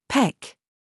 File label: DRESS TRAP, minimal pairs